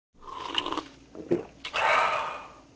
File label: man, tavern; Pirates Of The Caribbean; AMBIENT